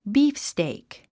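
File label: "beefsteak", English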